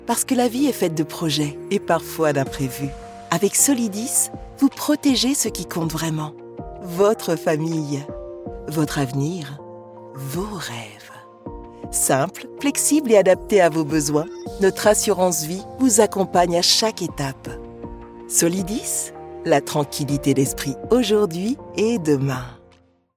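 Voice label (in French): pub rassurante assurance, voix off féminine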